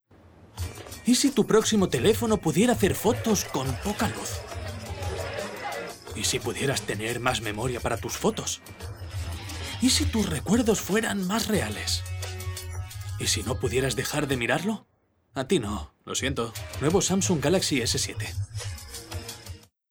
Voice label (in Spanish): anuncio; Samsung Galaxy S7